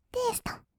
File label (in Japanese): "テスト", kawaii voice; ASMR; 色々なワンショット